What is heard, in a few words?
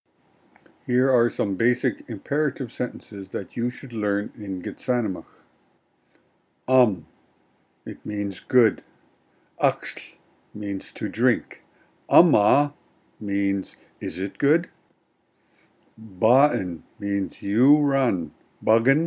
gitxsanimx imperatives; imperatives commands